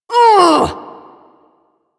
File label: Ability Lift, Barks; Warframe; Corpus Lich; Persona B